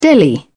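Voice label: "deli", British English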